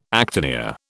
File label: "actinia", British